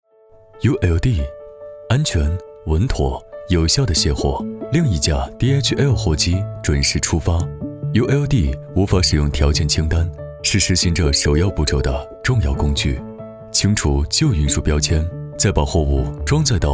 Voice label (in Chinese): 男 科技感 年轻磁性